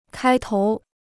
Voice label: "开头", Chinese